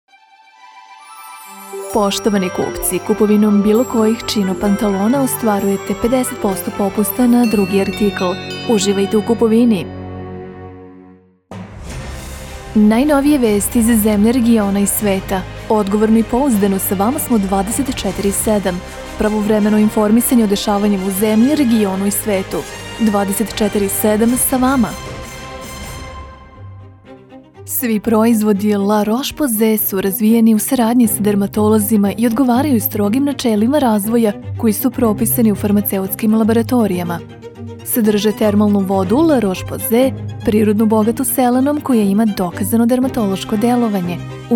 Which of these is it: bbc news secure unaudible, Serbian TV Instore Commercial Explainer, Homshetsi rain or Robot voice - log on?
Serbian TV Instore Commercial Explainer